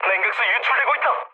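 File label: Korean damaged water mood low; speech; War Thunder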